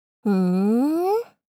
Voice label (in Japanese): ふ～ん・・・? 少女×中音域